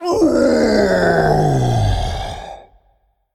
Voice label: Male Giant Death